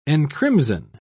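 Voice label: "encrimson", British English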